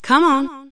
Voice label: c'mon, female; actors; ambient; KINGPIN